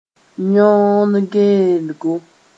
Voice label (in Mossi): "yõod geelgo", moore